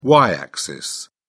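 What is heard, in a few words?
"y-axis", British English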